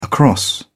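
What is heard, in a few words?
"across", British English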